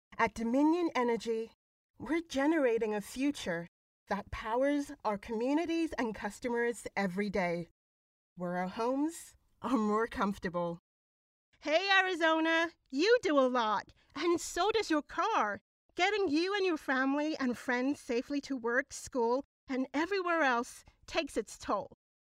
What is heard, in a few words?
American